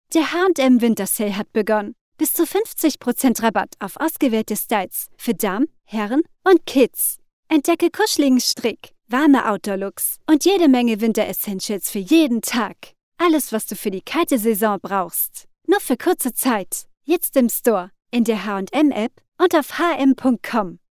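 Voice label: German, female, Spotify ad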